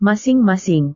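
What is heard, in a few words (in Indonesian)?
"masing-masing", Indonesian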